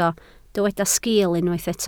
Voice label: "sgiil", Welsh

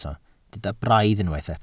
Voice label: "braidd", Welsh

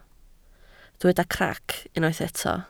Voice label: "crac", Welsh

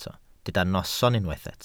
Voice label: "noson", Welsh

